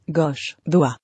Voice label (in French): "Goch" "Dwa" Créole Haïtien